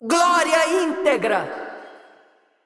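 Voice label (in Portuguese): assist, Brazilian Portuguese; Kayle; bloom minigame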